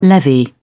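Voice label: "levy", British English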